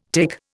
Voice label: "tic", Indian